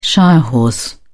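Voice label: "shire horse", British English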